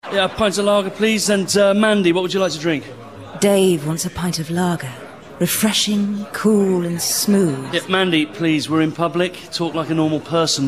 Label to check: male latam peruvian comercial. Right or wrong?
Wrong